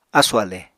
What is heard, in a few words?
"ásù-ale", Apatani